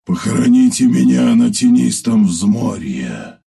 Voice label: death, ru; Sven

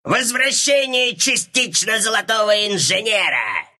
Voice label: respawn, ru

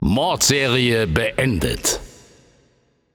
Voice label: Announcer German Spree End

